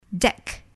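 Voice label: "deck", English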